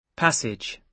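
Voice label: "passage", British English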